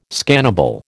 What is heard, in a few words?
"scannable", American